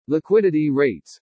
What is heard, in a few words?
"liquidity rates", english